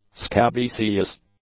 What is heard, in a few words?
"scabiesious", English; adjectives